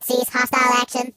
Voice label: "Cut it out", Robot; HedgewarsMobile